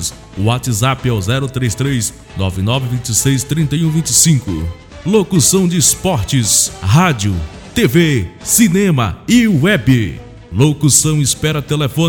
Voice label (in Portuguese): vinheta